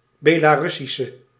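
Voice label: "Belarussische", Dutch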